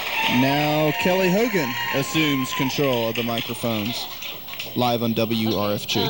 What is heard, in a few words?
radio announcer